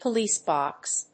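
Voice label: "police box", English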